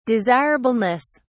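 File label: "desirableness", British English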